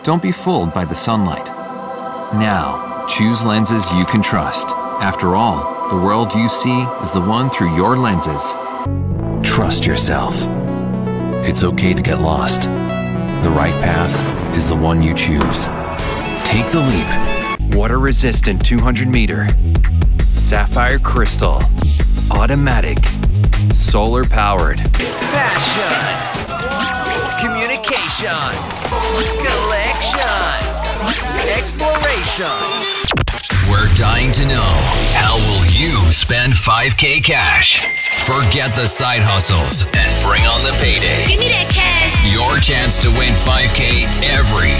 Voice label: US Commercial